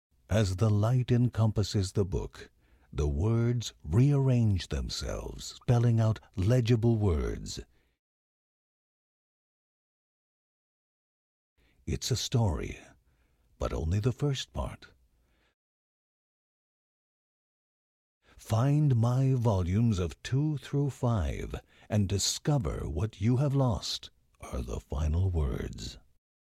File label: Mystic Book narration; children of morta; Procedural Events